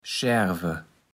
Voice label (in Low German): "Schaerve", kaevels platt